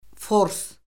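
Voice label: "fors", Azerbaijani; Orfoqrafiya Lüğəti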